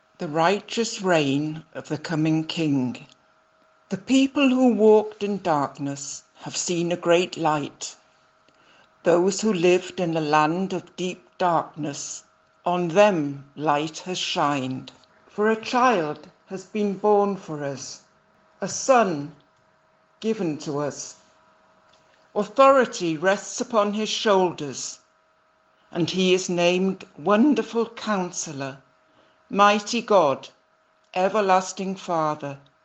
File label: Carol Service Reading